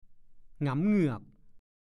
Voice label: "หงำเหงือก", ภาษาโคราช; แก่ชราจนฟันหลุดเหลือแต่เหงือก แก่มากจนเลอะเลือน

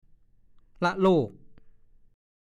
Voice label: "ล่ะโลก", ภาษาโคราช; โคลน ตม ขี่ล่ะโลก ก็พูด

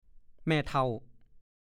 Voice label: "แม่เถ่า", ภาษาโคราช; แม่ยาย ยายทวด แม่ของยาย

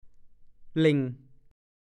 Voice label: "เหล่ง", ภาษาโคราช; ไม่ได้ระดับ ไม่ตรง (ศัพท์ช่าง)

